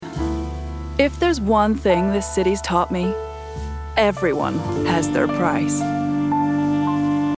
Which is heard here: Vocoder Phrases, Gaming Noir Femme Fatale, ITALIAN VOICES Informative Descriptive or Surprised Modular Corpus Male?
Gaming Noir Femme Fatale